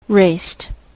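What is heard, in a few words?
"raced", US English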